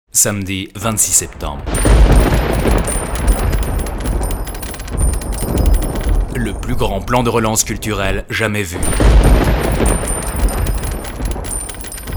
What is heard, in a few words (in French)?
TV FR; sprecher; profi